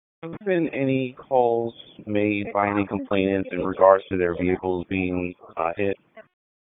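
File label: Police Northeast District Dispatch, Raleigh; wake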